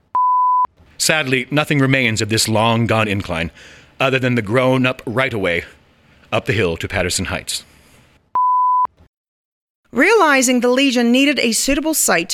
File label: MXL V900D